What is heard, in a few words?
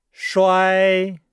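"shuai", Chinese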